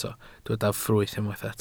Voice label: "ffrwyth", Welsh